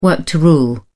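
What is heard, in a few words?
"work to rule", British English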